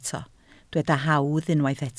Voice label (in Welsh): "hawdd", Welsh